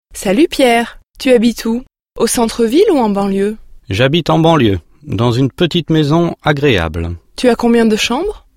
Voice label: dialog